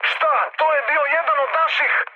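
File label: Serbian player killed ally mood high, speech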